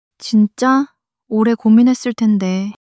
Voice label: sadness, monologue

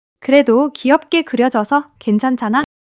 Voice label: joy; monologue

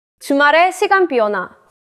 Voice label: neutral, monologue

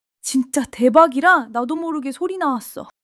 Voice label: excitement; monologue

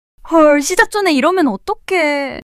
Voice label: surprise, monologue

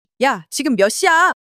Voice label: anger; monologue

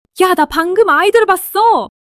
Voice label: excitement, monologue

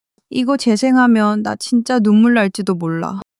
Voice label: crying; monologue

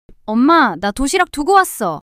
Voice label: fluster; monologue

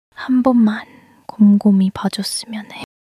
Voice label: sadness

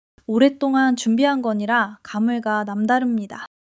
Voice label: excitement; monologue

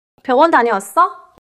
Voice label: neutral, monologue

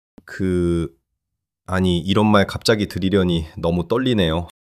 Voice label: shy

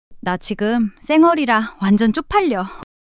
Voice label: shy; monologue

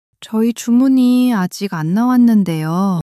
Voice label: sadness, monologue

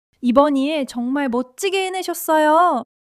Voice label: joy

